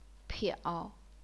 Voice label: "piao3", chinese pinyin